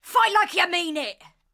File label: Ranged Female Chokepoint Line, dialogue; NPC; Heist Thug Chokepoint